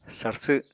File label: "sarsw", Apatani